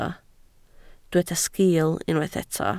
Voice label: "sgiil", Welsh

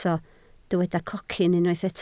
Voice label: "cocyn", Welsh

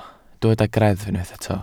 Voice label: "greddf", Welsh

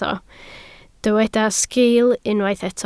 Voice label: "sgil", Welsh

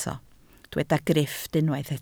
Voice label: "grifft", Welsh

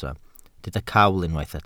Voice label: "cawl", Welsh

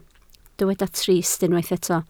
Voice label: "trist", Welsh

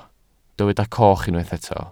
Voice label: "coch", Welsh

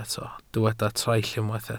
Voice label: "traill", Welsh

